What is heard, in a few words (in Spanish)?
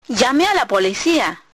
"police", Spanish